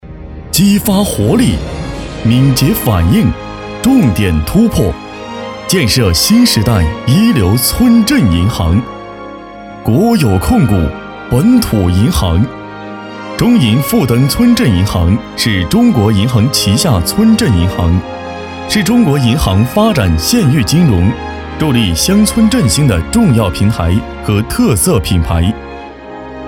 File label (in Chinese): 男, 银行宣传样音; 中银富登村镇银行